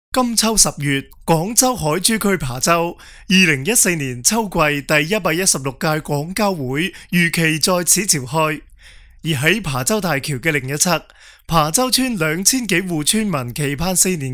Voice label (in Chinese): 男粤 专题 磁性; 党政专题; 粤语